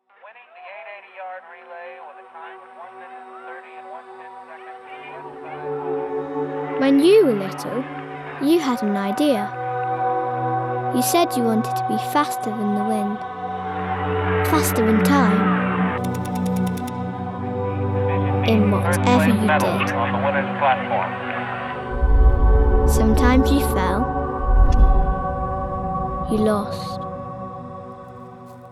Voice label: british voice

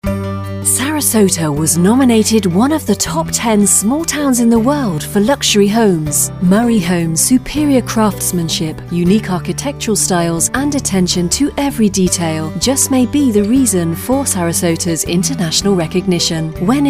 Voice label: Radio Commercial, British voice; Murray Homes

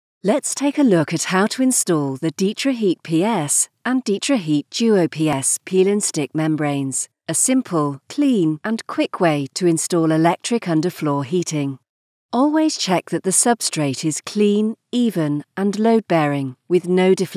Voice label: British voice; How to DITRA HEAT

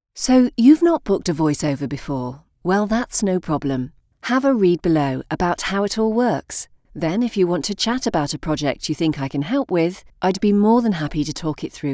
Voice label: Website VO, british voice

